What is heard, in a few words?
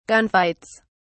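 "gunfights", British English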